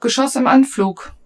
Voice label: German, Incmiss